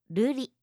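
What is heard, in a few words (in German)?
"ruri", japanische Mädchennamen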